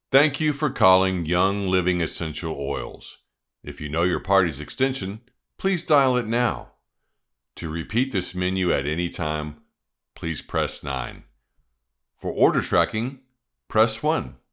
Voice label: telephony script essential oils